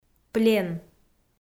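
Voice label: "плен", Komi, female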